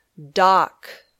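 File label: "dock", English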